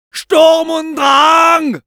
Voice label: Medic cheers, fr; "Sturm und drang !"

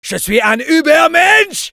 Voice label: Medic cheers, fr

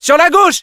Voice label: Scout head left, fr; Sur la gauche !

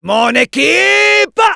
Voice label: Medic jeers

